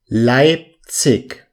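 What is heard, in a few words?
"leipzig", German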